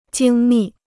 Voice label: "精密", Chinese